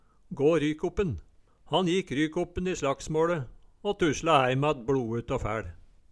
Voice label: "gå rykoppen", Numedalsmål

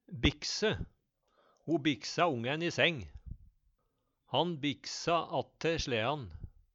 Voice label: bikse, Numedalsmål; Ho biksa ongæn i seng. Han biksa atte slean

